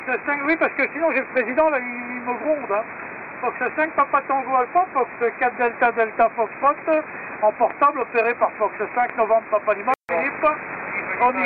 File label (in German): Amateurfunk LSB; 7091khz